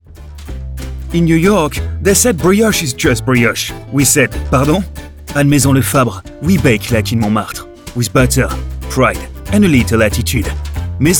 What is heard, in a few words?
English European M, accent of france